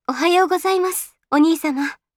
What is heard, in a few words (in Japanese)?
System Voice Windowsの起動; 香純